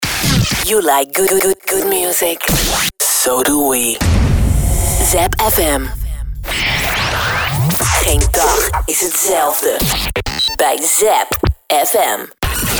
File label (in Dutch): radiovormgeving; podcast